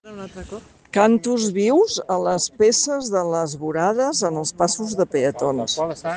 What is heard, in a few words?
Voice message